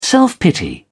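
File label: "self pity", British English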